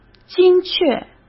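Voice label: "精确", Mandarin Chinese; hsk; chinese-flashcards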